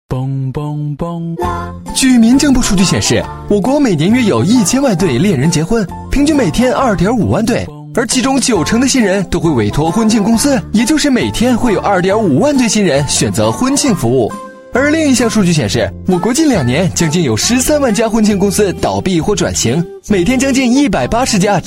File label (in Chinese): 男 飞碟说 企业宣传 娱乐搞怪, 国语男声配音 飞碟说广告